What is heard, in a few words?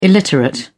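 "illiterate", British English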